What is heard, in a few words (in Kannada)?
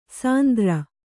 "ಸಿಂಧೂರಿ", Kannada